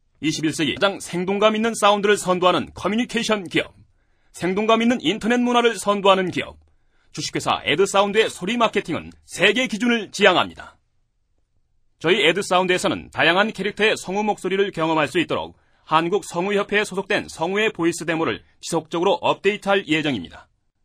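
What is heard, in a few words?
Korean, male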